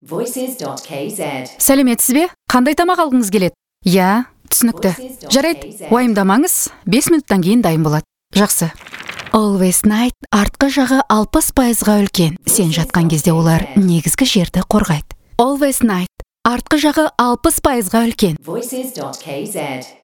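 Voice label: Kazakh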